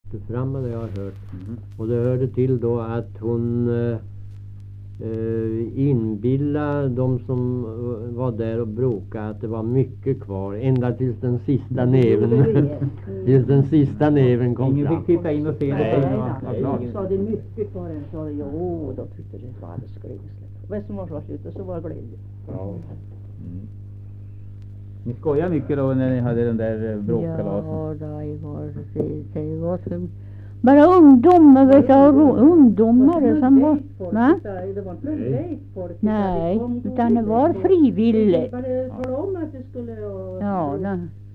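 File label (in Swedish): Riala Uppland, dialekter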